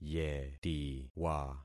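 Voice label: "yetiwa", concatenated syllables; Affixing experiment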